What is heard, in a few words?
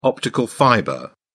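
"optical fibre", British English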